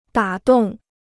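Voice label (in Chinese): "打动", Chinese; dǎ dòng; émouvoir; toucher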